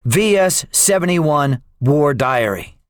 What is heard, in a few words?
debriefing, english; guadalcanal; War Thunder